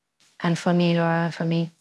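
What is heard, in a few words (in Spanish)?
Voice Acting es F, Spanish, female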